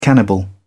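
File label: "cannibal", British English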